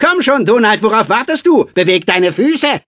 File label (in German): Deutsch Atk; Donald Duck Goin' Quackers